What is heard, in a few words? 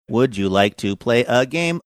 would you like to play game singsongy robotic